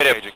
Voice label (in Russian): nerazborchivaya rech po racii soldata